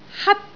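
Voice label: "hat1", Cantonese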